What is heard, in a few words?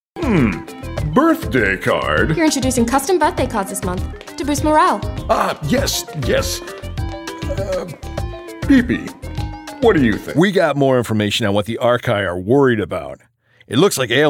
english american video games